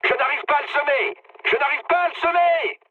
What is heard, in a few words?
aircraft damaged mood med, speech fr; War Thunder